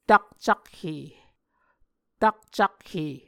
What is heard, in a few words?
"takcakhe", Muscogee